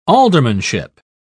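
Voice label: "aldermanship", British English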